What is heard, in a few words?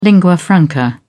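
"lingua franca", British English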